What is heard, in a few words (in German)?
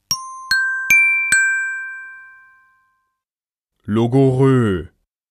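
"Logorrhoe", German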